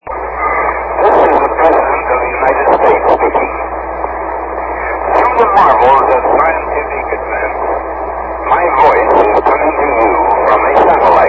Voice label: satellite speech of president eisenhower; score